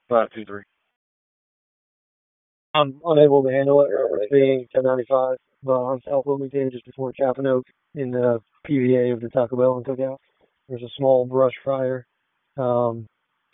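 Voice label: Police Southeast District Dispatch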